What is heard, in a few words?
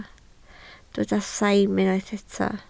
"saim", Welsh